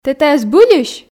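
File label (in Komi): "тэ тайӧ збыльысь", Komi, female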